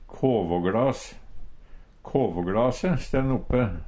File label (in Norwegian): kåvågLas, Numedalsmål; Substantiv inkjekjønn